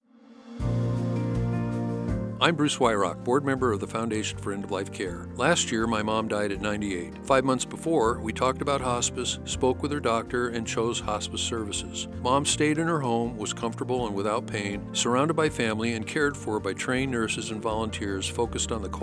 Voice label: Information Ad